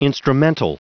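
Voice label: "instrumental", English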